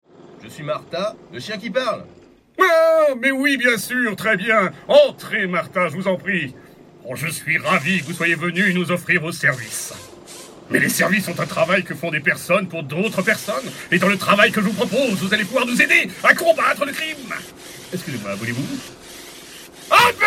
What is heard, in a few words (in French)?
DESSIN ANIME